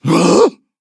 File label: defeat, th; cookie run